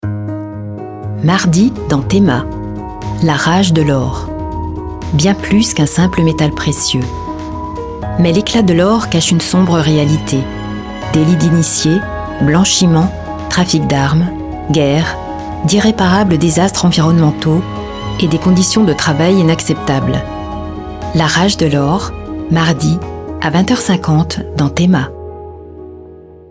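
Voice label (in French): BANDE ANNONCE, Voix off; Théma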